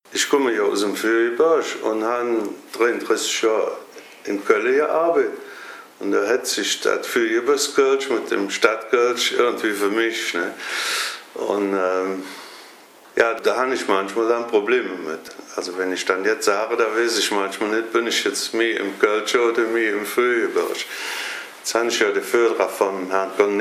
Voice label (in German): Koelsch vs Platt